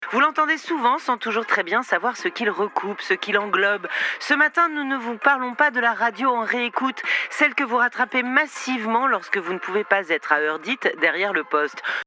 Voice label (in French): VOIX DE FEMME